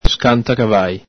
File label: "scantacavali", Veneto